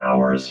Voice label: "hours", robot

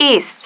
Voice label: "east", female

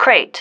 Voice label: "crate", female

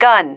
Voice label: "gun", female

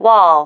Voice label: "wall", female

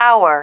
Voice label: "hour", female

